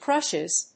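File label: "crushes", English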